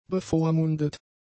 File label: "bevormundet", German; adjectives